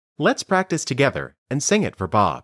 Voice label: Dictation